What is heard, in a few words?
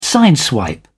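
"sideswipe", British English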